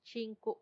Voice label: "chinku", small, macuiltianguis; pequeno

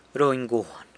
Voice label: "runy guan", San Lucas Quiaviní; makes a charitable donation

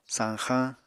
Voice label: "sañxañ", Apatani

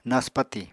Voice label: "naspati", Apatani

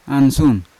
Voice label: "ansun", Yawa; clothes; unat

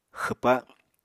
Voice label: "xwpa", Apatani

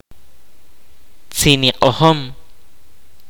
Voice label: "Guitar", kaqchikel

